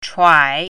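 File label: "chuai3", Mandarin Chinese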